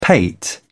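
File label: "pate", British English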